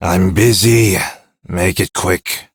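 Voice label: Ambient Male Sweeper, Dialog; Duviri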